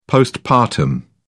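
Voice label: "postpartum", British English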